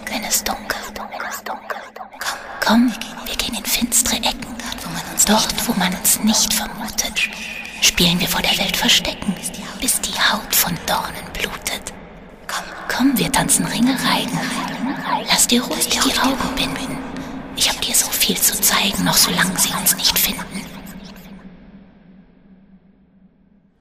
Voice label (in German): LIEBE MUNKELN Hörbuch